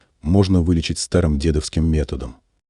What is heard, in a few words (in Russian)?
robivox Muzhskoj